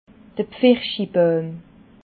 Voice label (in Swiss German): "pfarsigbauim", alsace